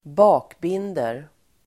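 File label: "bakbinder", Swedish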